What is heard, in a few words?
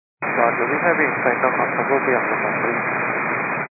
122 925MHz, scanner radio